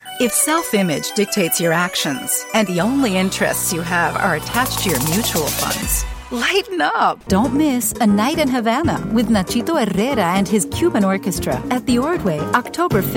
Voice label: English Multi-genre